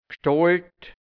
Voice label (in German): "Gstolt", Hianzisch, Mundart, Burgenland; Gestalt